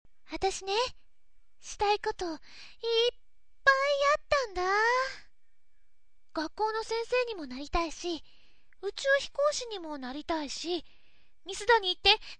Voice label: voice onna